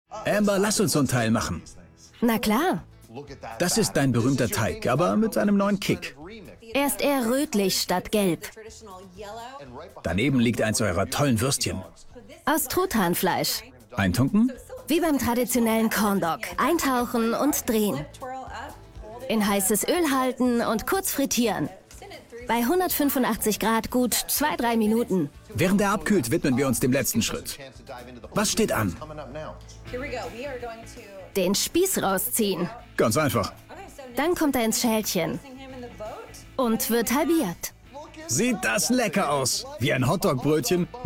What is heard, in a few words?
Voiceover; Crazy Food USA